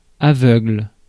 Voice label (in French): "aveugle", francais